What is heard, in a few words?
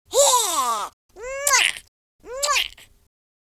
happy, American Wrestler